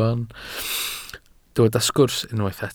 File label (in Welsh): "sgwrs" Welsh